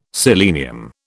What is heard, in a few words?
"selenium", British